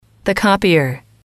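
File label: "the copier", English, female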